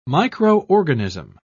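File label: "micro-organism", British English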